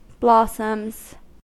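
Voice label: "blossoms", English, American accent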